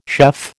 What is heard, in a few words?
"chef", American